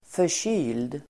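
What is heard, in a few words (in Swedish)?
"förkyld", swedish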